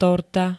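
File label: "torta", Slovak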